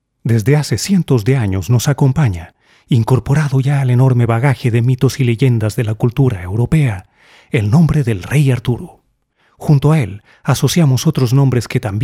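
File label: spanish latin american male; dictor-sounds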